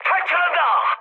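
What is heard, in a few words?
Korean bailout mood high, speech; War Thunder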